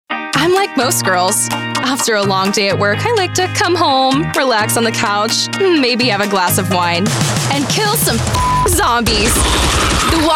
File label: commercial